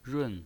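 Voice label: "run", Mandarin Chinese pinyin voice, fourth tone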